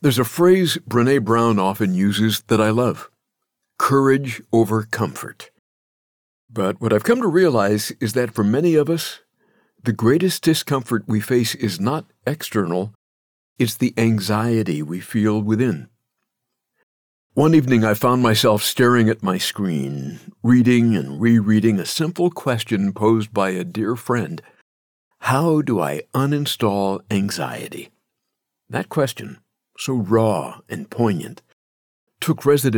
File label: audiobook; How Do I Uninstall Anxiety